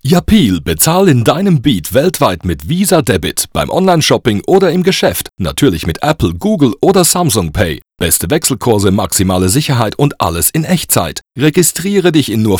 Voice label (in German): Spotify DE; YAPEAL